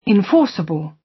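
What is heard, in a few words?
"enforceable", British; Crime and punishment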